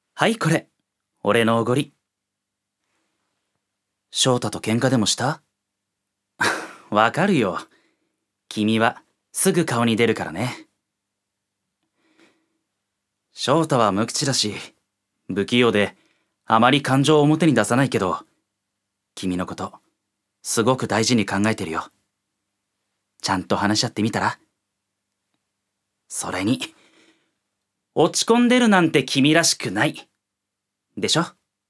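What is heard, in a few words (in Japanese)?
セリフ, 男性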